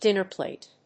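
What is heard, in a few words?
"dinner plate", English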